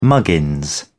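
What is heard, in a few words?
"muggins", British English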